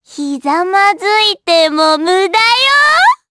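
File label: Vox Skill Japanese; Pansirone; kingsraid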